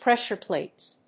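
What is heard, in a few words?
"Pressure plates", English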